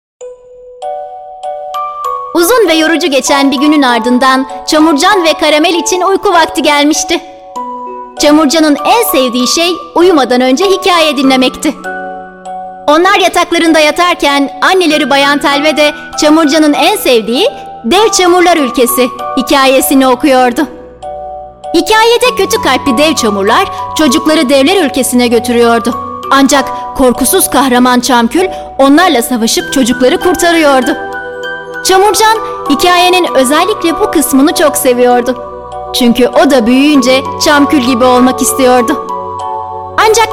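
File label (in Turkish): kitap, Turkish; camurcan ve karamel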